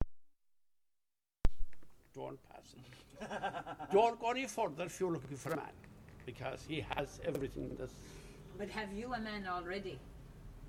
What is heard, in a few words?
Sneem Rural SW Ireland Near Killarney; b1928; Galway; Munster; south west ireland